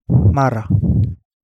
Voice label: Kapingamarangi; wahoo